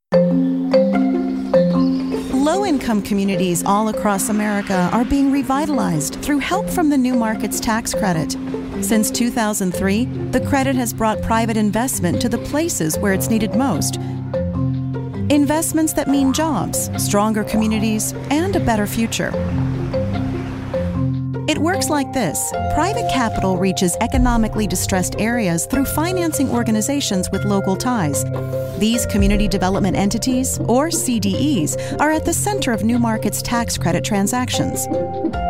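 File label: female, US English, narration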